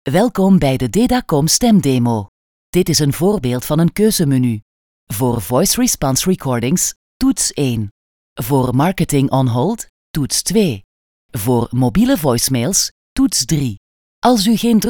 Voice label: Voice over Vlaams vrouw

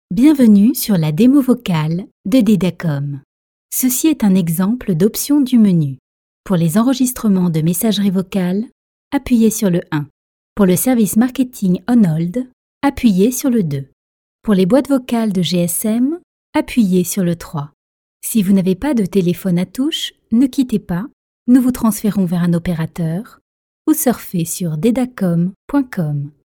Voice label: Voice over Frans vrouw

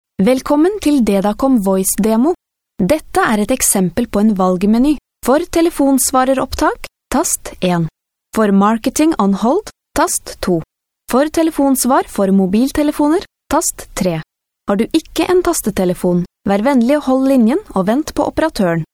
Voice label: Voice over Noors vrouw

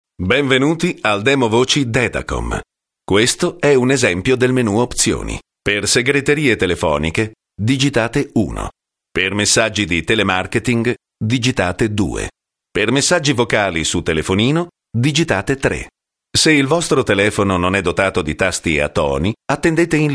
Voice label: Voice over Italiaans man

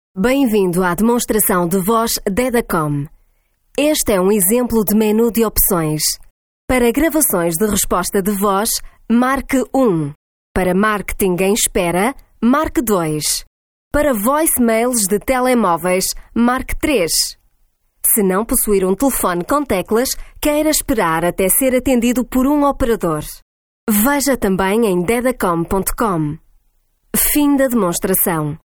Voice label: Voice over PORTUGEES Europees vrouw

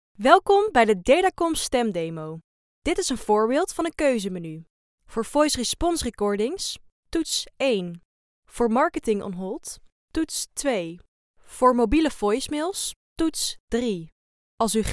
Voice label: Voice over Nederlandse vrouw

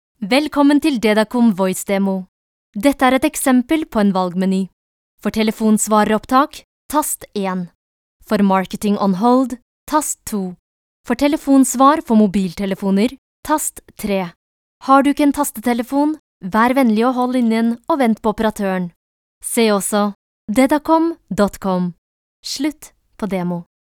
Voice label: Voice over Noors vrouw

